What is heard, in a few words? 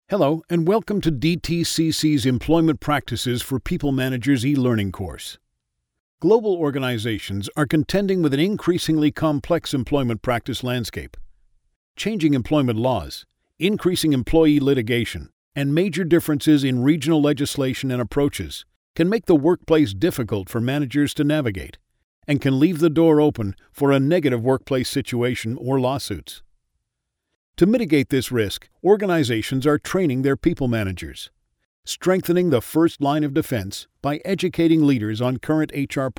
english canadian corporate videos